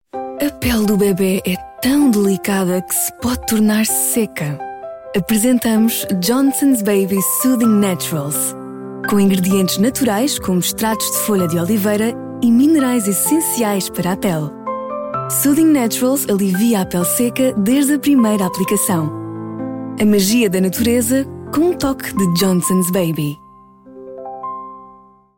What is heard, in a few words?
Spot